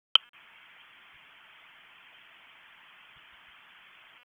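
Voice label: Police Southeast District Dispatch; Raleigh; wake p25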